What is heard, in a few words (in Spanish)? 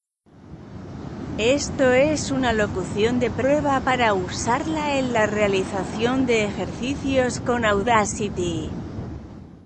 locucion ruido